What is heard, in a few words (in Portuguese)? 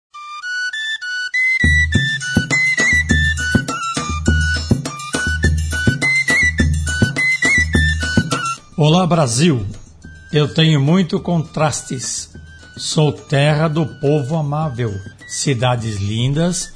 Voz Oculta Nordeste com fundo musical